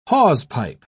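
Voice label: "hawsepipe", British English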